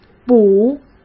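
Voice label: "捕", Mandarin Chinese; hsk; chinese-flashcards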